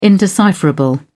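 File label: "indecipherable", British English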